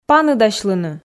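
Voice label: "паныдасьлыны", Komi, female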